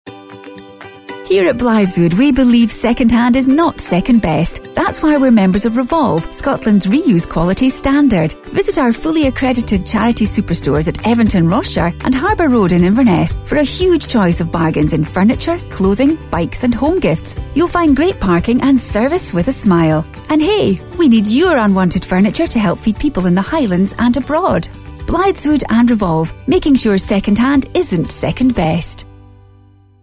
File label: Commercial; MFR Blythswood